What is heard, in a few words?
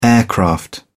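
"aircraft", British English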